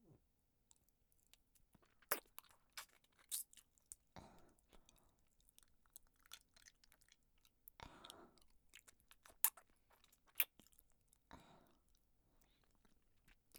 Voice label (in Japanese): カウント有; 叶神様